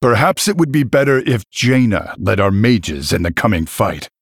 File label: Male Human Player Loss